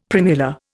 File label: "primula", Indian; Dictionary